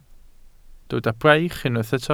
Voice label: "braich", Welsh

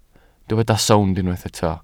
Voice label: "sownd", Welsh

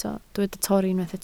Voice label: "torri", Welsh

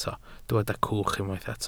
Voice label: "cwch", Welsh

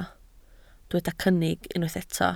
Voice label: "cynnig", Welsh

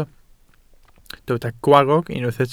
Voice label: "gwarrog", Welsh

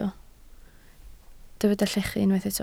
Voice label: "llechu", Welsh

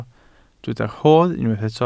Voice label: "rodd", Welsh

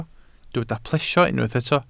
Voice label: "plesio", welsh

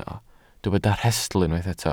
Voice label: "rhestl", Welsh

